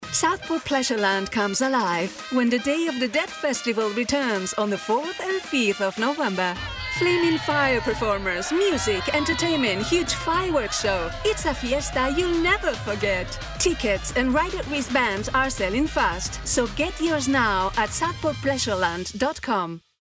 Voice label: eng commercial